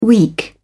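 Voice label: "weak", British English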